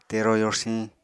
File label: "tero yorsiñ", apatani